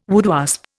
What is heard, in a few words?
"woodwasp", Indian